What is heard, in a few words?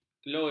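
"keloi", Malay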